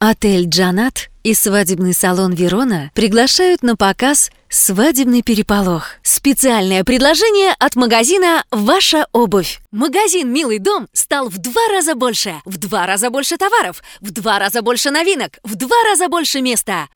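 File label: Russian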